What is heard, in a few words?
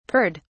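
"purred", British English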